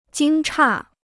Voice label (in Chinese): "惊诧", Chinese